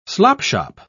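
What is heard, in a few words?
"slopshop", British English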